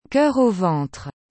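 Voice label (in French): "coeur au ventre", French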